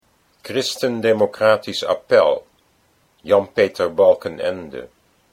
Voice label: CDA, Dutch

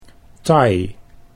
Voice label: "Thai", Dutch

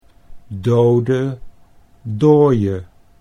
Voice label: "dode dooie", Dutch

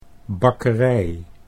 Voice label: "bakkerij", Dutch

